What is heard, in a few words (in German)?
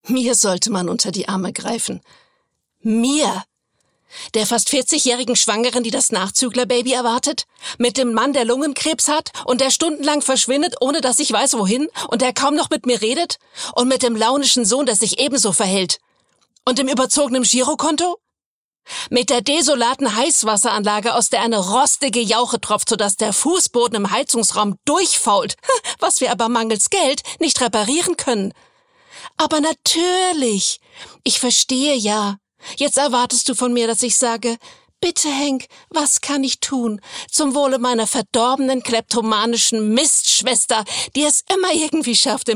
Emotion Wuetend